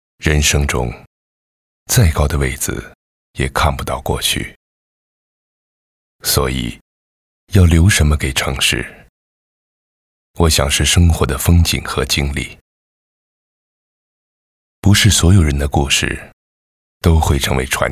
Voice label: 宣传片 地产 城市 磁性

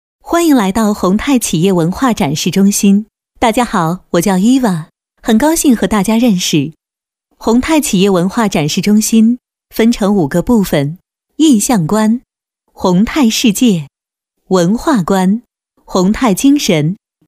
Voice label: 多媒体 产品演示 虚拟机器人, 女国

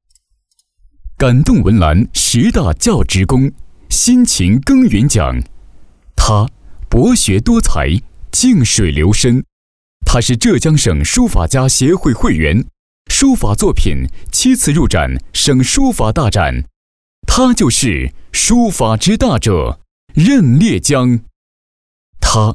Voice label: 专题, 颁奖, 感动人物; 感动人物教学工

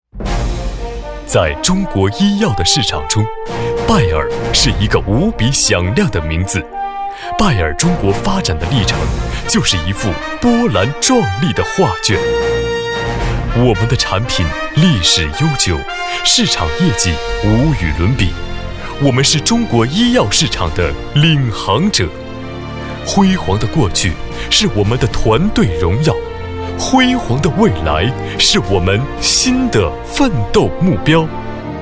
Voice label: 宣传片 企业 医药 大气; 拜耳医药

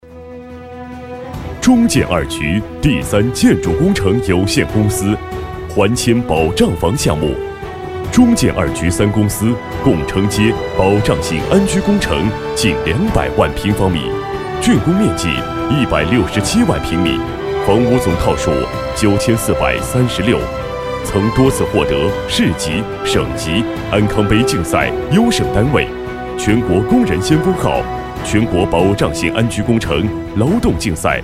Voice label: 专题 企业 厚重, 男国; 中建二局

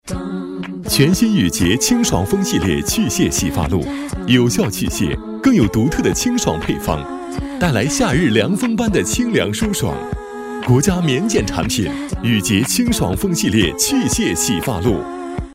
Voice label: 男国 广告 品牌形象 优雅; 雨洁洗发露

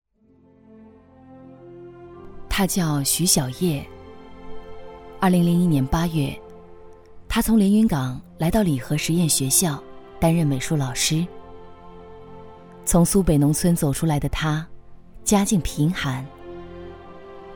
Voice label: 专题 人物 人物讲述, 女国

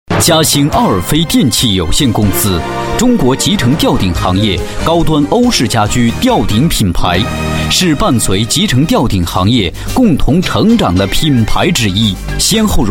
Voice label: 专题 企业 吊顶装饰 浑厚

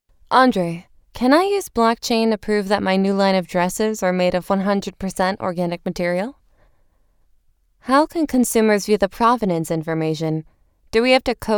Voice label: 外籍女英 外语 美式英语 角色

